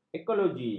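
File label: "ekologi", Malay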